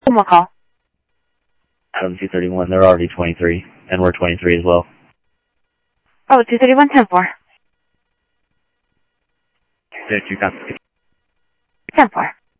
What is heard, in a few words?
Info Sheriff Common, scanner audio trunk